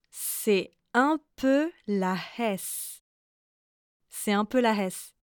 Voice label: french phrases